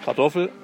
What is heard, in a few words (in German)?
Dialekte; GHV Dialekte 2018